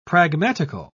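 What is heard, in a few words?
"pragmatical", English, British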